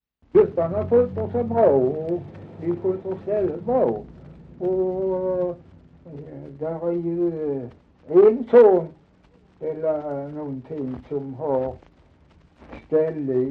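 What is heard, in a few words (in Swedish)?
Dalby Skåne, Svenska sagor dialekter; Folkminnen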